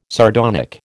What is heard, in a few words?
"sardonic", American